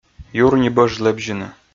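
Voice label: "юр ни бӧж лэбзьыны", Komi, male; kpv audio dict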